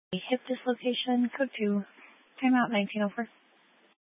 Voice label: Grand Junction Fire Department Dispatch